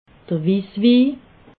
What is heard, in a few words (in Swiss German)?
"wisswi", alsace